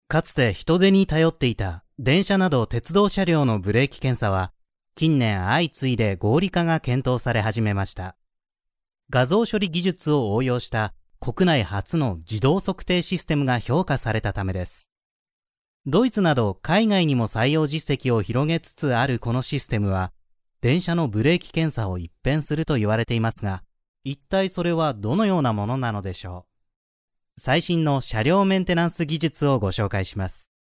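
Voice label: Japanese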